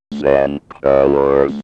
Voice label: Electro voice; 90 sSampleCDs; Coldcut's Kleptomania VOL1

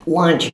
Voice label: "launch", shouts; Best Service; Hyper Dance

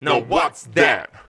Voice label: Male FX Vocals; Houseworx

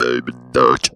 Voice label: BABY, Funky Vocals; USB Soundscan vol.18; AKAI

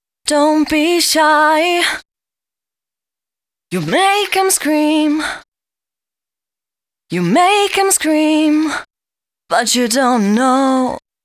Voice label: female g# 140, hooklines, Dance Ultra Voice; hooklines 136-140 BPM; Mutekki Media; Dance Ultra Voice VOL-1

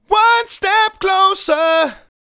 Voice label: "One Step Closer", vocal phrases; Ultimate Vocals

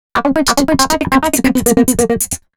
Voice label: female spoken hooks, dance ultra voice

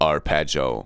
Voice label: ARPEGGIO, VOCALS SPOKEN; Techno Trance Essentials

